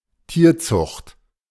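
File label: "Tierzucht", German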